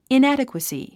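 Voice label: "inadequacy", English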